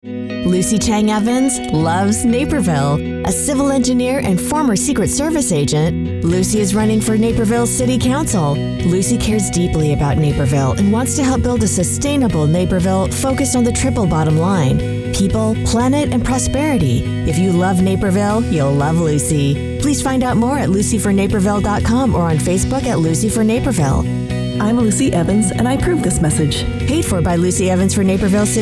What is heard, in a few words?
Independent Political Radio